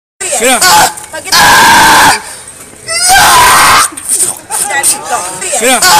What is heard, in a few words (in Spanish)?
flaite gritando no flayte gritando nooo